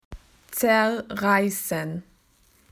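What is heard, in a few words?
"to rip", Australian English; PictureDictionary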